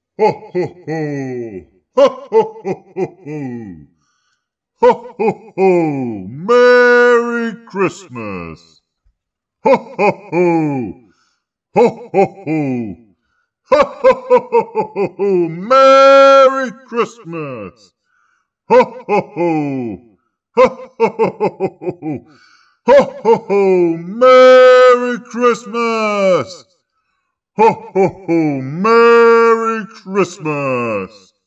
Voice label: tieng ong gia noel ho ho ho

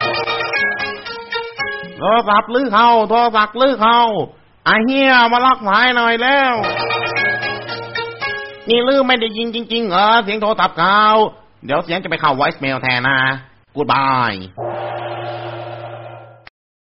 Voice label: nhac chuong phone linging tieng thailan

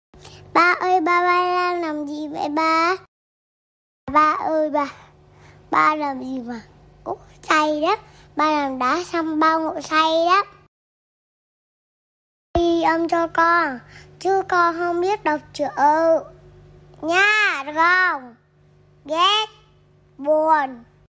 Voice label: ban ghi am tieng con gai noi chuyen voi ba de thuong

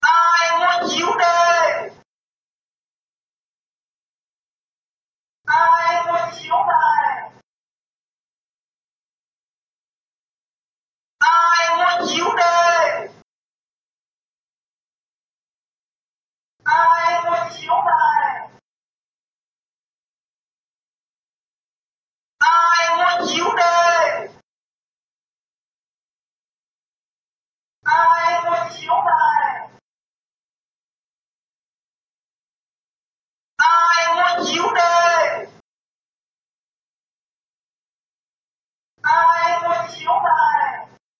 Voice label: Tieng rao ban chieu

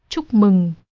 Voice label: Tieng noi chuc mung giong nu

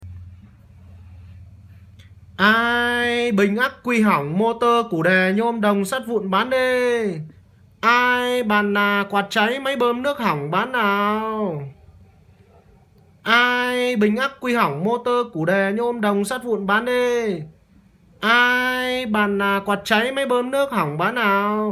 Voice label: Tieng rao thu mua dong nat ve chai

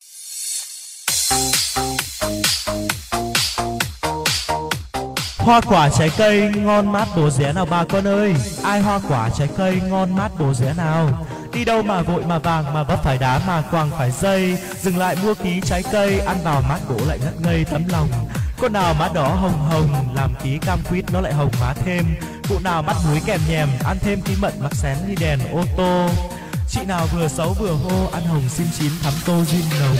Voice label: Tieng rao ban trai cay

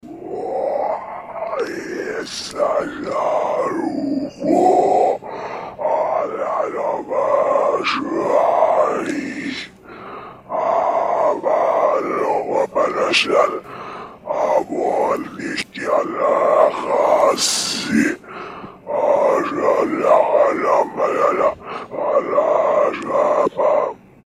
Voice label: Giong noi dang so kinh di

